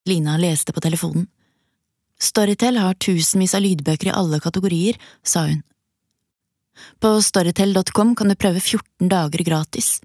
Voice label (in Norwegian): Lydbok